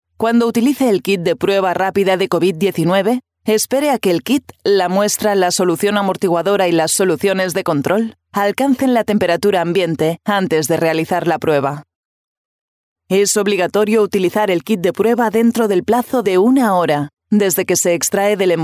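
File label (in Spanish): e-learning, Spain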